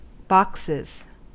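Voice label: "boxes", US English; pronunciations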